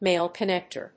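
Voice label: "male-connector", English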